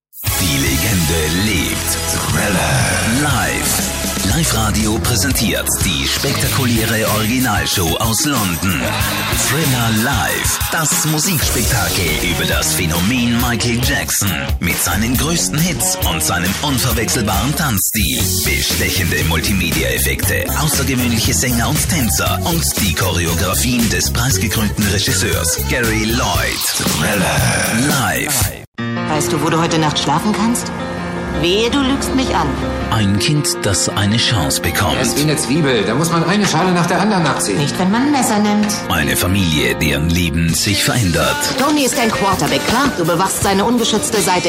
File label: german voice commercial